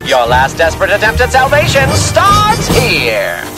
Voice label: announcer; hazbin hotel alastor announcer pack for qol lock